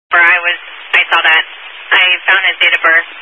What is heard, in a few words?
Highway Patrol, scanner; DPS